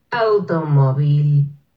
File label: automobile sp slow